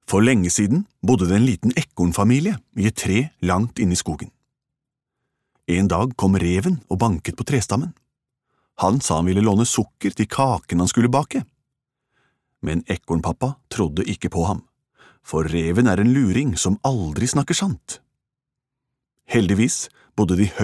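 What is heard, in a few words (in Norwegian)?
forteller, Norwegian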